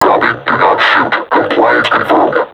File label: taunt, vo combine suppressor; Half Life Alyx